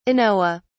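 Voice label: "anoa", British English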